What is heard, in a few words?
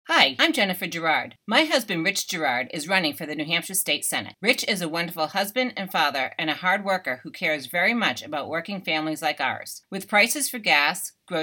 Radio Spot